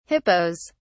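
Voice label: "hippos", British English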